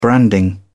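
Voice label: "branding", British English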